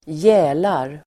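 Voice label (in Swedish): "gälar", Swedish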